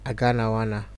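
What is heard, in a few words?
"aganawana", Nigerian Pidgin, male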